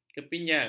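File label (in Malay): "kepinjal", Malay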